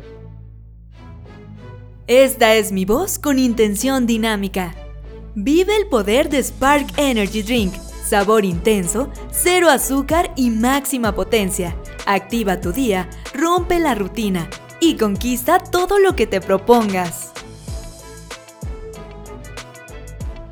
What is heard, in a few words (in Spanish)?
Dinamica Musicalizado, locutora